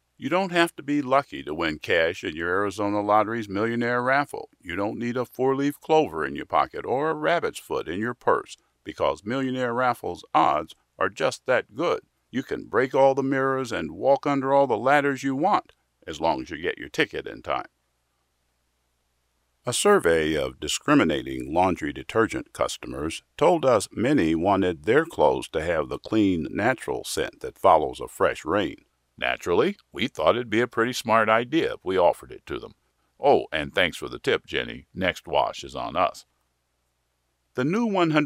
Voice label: voiceover male